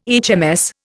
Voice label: "HMS", Indian

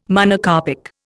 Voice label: "Monocarpic", Indian

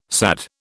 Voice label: "SAD", British

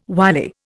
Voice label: "wily", Indian

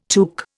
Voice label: "tuque", Indian

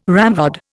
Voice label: "ramrod", Indian

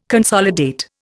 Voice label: "consolidate", Indian

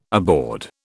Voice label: "aboard", British

